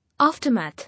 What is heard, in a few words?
"Aftermath", English